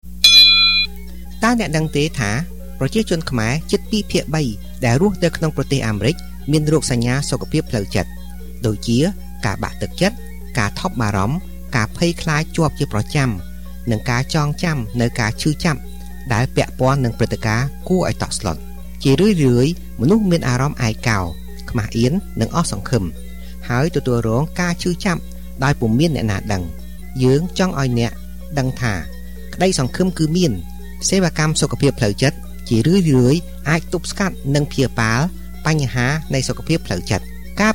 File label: Khmer Radio Ad